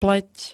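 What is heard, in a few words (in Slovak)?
"pleť", Slovak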